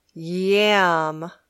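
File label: "yam", English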